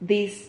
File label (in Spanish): "diz", Silabas